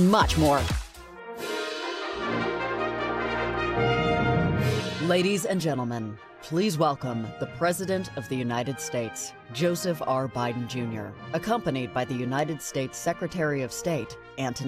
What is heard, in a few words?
Live Event VOG